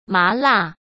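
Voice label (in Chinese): "麻辣", Mandarin Chinese; chinese flashcards; hsk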